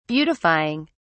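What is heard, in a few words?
"beautifying", British English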